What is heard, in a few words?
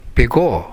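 "bego", Minamiyamagata dialect Japanese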